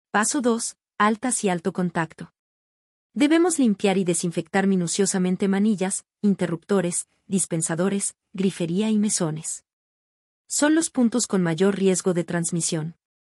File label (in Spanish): locucion